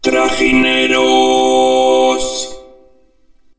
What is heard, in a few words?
vocoder largo